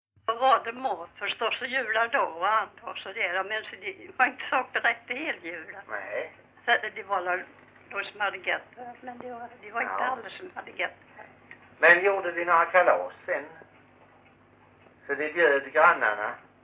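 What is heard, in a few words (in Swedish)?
Grimeton Halland, Svenska sagor dialekter; Folkminnen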